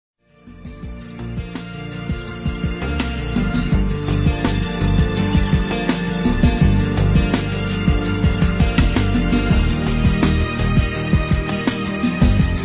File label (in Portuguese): Neutro, fem; Telemensagens 2017